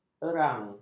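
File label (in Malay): "erang", Malay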